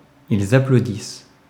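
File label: "ils applaudissent", French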